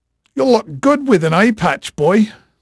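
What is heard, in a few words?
Portuguese male, voice; Pirates Of The Caribbean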